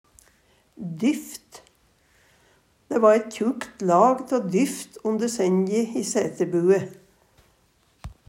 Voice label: "dyft", Numedalsmål

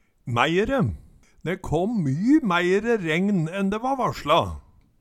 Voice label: mæire, Numedalsmål

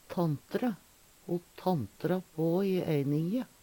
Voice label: tanntre, Numedalsmål; Verb

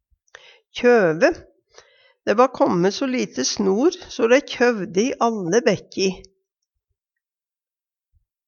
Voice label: kjøve, Numedalsmål

